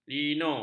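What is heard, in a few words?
"lino", Malay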